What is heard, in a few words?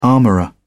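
"armorer", British English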